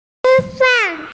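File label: "eat", Mandarin Chinese, female; chi1fan4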